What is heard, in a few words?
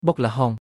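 "បុកល្ហុង", Khmer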